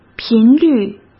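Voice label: "频率", Mandarin Chinese; chinese flashcards; hsk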